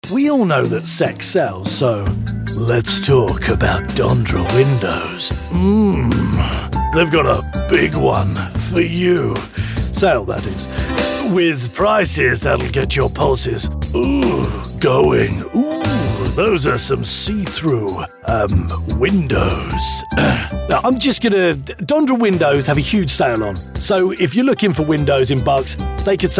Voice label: commercial

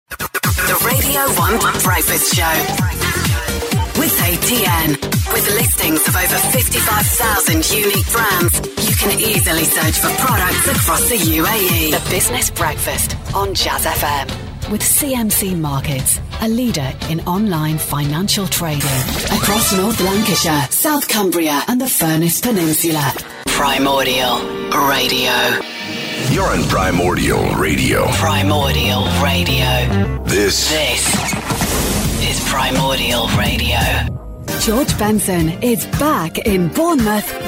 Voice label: station branding

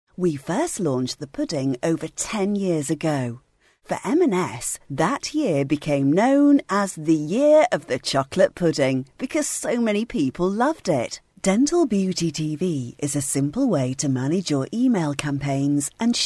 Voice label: corporate

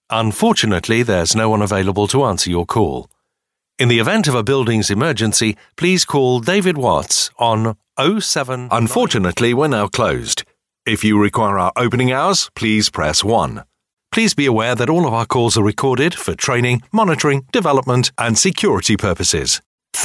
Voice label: ivr

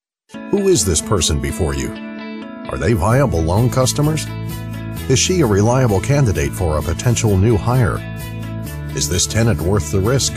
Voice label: american male, corporate

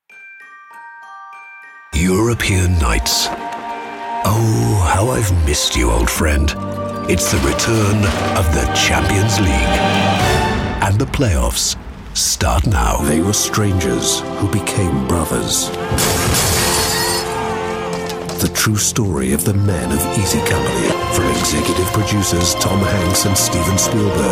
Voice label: tv promo

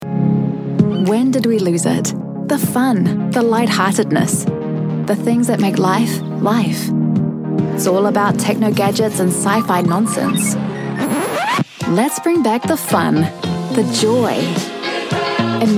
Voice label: new zealand voiceover commercial

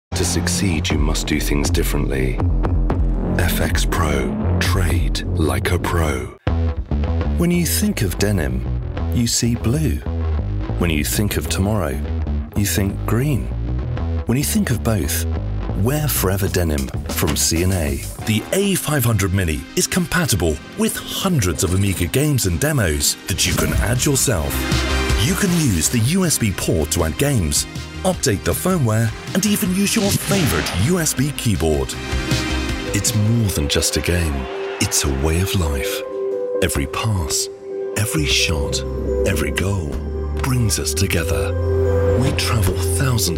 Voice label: commercial

